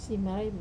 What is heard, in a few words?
"simarai" rub, Wamesa